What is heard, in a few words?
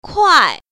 "kuai4", Mandarin Chinese; 快